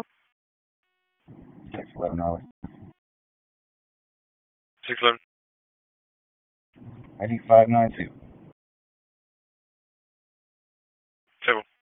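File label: Police Dispatch, p25; Raleigh; Southwest District; wake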